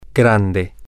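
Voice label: big, Spanish, male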